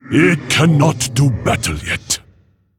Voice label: Male Mogu ERROR SUMMON SICKNESS